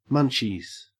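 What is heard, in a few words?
"munchies", Australian English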